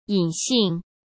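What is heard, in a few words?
"隐性", Mandarin Chinese; hsk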